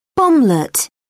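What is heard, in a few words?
"bomblet", British English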